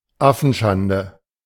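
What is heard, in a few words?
German "Affenschande"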